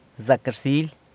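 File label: "Zac rsily!", Good Morning!, San Lucas Quiaviní Zapotec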